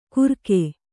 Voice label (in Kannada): "ಗುರಕೆ", Kannada